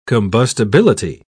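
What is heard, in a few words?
"combustibility", British English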